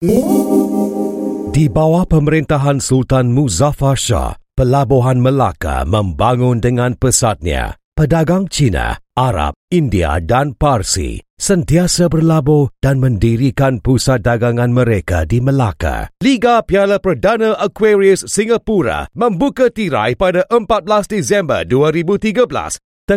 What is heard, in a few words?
Malay Mash Up